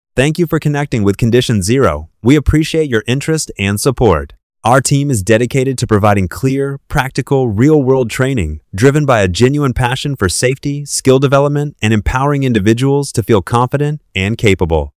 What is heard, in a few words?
Magnetic voiced Male